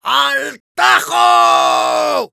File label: battlecry, es; Demoman